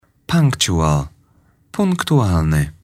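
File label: "punctual", English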